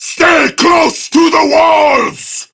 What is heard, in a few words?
Prime Grineer Male; Warframe; Tau; Battle